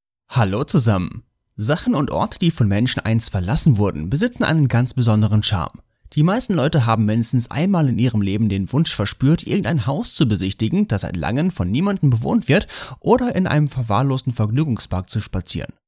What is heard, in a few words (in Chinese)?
德语男 干音 产品介绍